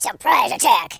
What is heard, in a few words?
brilliant, speech, War General